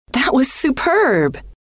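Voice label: that Was Superb, female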